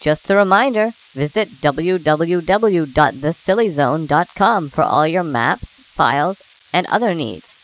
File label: dj talk